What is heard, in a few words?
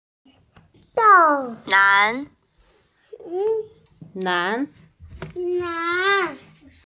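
"nan2", Mandarin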